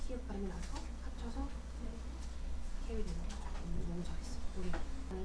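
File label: "hold", spoken word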